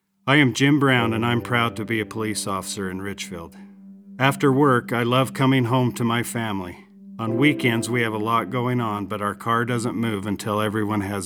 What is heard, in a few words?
Radio